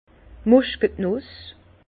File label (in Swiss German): "muskatnuss", Bas Rhin; vin; noix de muscade